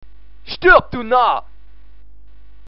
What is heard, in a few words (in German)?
Narr